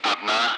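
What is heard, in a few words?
Speech, Russian; Allied Flight; Digits; DCS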